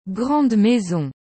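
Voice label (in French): "Grande maison", French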